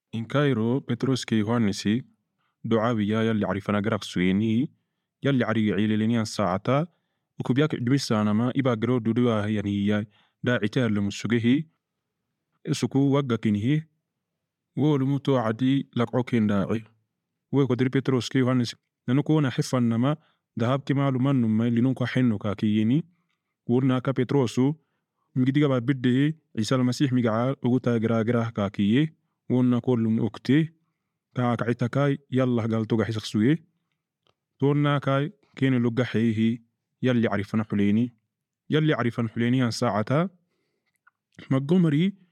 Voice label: Afar; Yali Rohaniya Sidat Gibinte; Peter and John Heal a Man Who Cannot Walk